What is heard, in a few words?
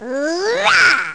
rrrah; baby bowser